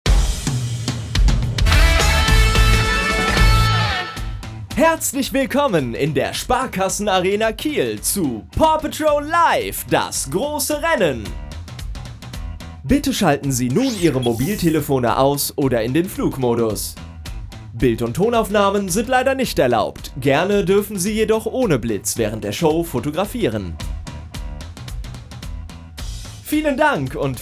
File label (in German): stadionansage; paw patrol live